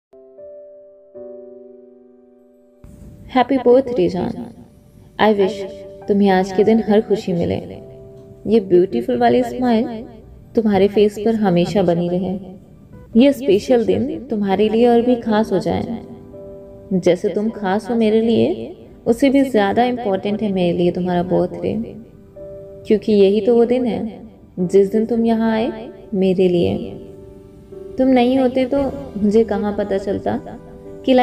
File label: Birthday poetry shayari; for boyfriend; Birthday status